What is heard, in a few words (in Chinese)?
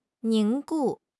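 "凝固", Chinese; HSK6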